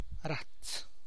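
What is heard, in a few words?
Tlacochahuaya Zapotec; tells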